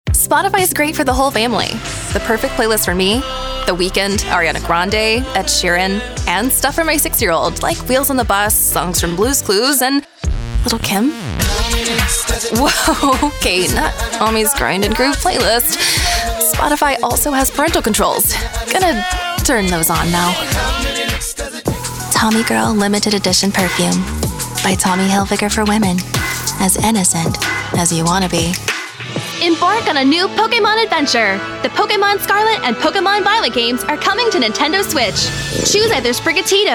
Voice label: Commercial